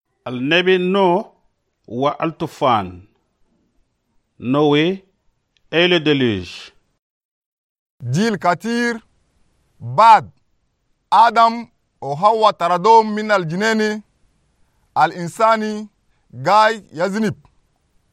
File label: rain, chadian arabic